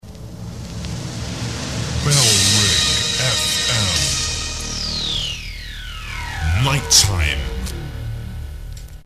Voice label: male, bed; bfm nighttime; bailrigg fm; bfm jingles ads RSL 1994